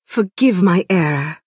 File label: Altmer female sorry